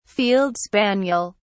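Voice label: "field spaniel", English, British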